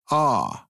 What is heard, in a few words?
phoneme coffee, American; American IPA phonic